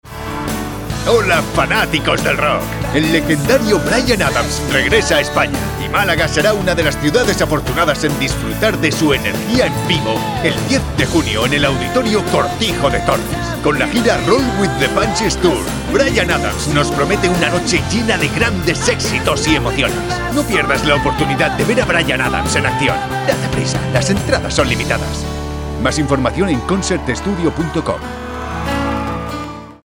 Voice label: Radio Commercials, deep, trustworthy, confident Male Voice; Publicidad